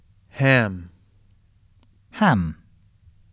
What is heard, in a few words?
"HAM", USUK Pronunciation